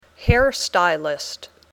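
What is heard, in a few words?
"hair stylist", English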